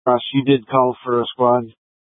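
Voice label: Incident Tac 3, Scanner audio recording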